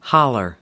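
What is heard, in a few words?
"holler", American English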